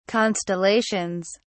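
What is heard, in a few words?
"constellations", English, British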